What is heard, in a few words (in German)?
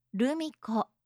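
"rumiko", japanische Mädchennamen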